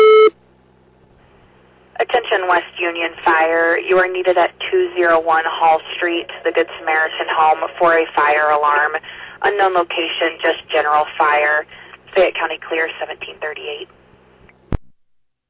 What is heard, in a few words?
West Union Fire, dispatch